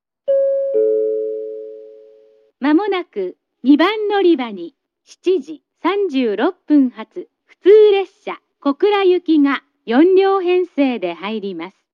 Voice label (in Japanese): announce; jrkyushu; kokura